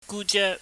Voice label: "guje", Cantonese

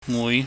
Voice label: "mui", pingyam

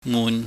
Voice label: "mun", Chinese

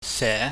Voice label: "se", pingyam

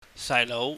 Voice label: "sailou", Cantonese

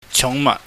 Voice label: "chungmaht", Cantonese

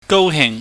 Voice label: "gouhing", Cantonese

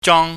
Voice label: "jong", chinese

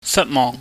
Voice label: "satmohng", Cantonese

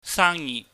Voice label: "saangyi", Cantonese

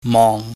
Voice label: "mong", Chinese

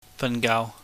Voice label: "fangaau", Cantonese

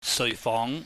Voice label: "seuihfong", Cantonese

